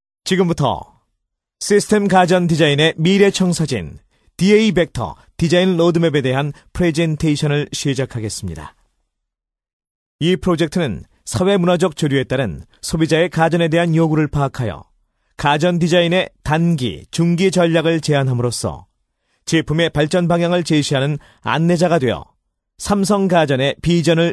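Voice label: Korean, male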